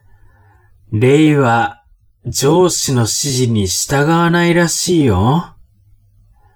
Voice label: man